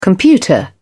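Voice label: "computer", English, British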